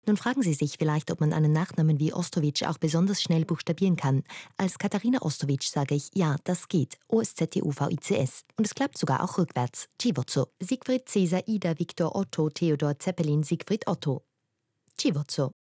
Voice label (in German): schnell gesprochen; Sprecherin